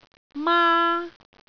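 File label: "maa1", Cantonese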